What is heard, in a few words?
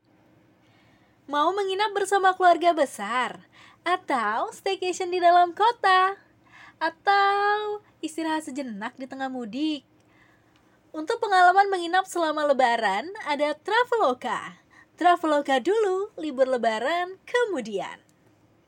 INDONESIA CASUAL